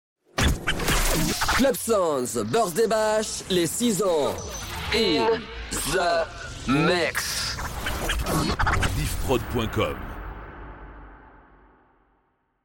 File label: Jingle Birthday bash; ClubSoundz; 6 Ans